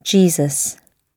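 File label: "jesus", British English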